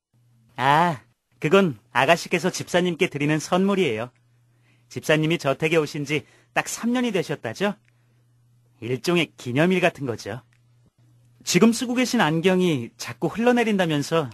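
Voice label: Korean, male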